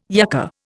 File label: "yucca", Indian